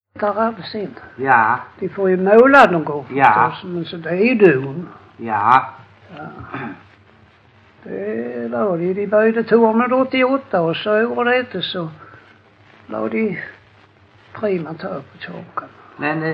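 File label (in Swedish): Lackalänga Skåne, dialekter svenska sagor; Folkminnen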